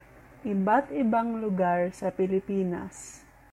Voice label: Tagalog